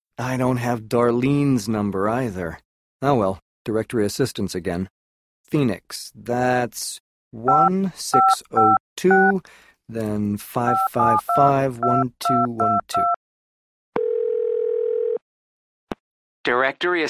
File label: Telephone, english listening survival